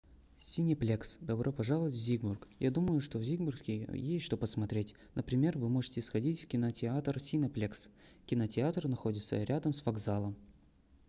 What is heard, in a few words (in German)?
Russisch